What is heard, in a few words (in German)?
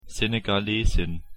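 "Senegalesin", German; pronunciation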